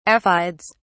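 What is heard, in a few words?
"aphides", British English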